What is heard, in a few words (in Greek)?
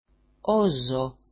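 "ozo", Greek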